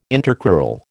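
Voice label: "intercrural", American English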